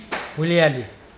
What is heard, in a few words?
exchange, Mokilese